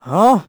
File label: vo confused; Crypt of the NecroDancer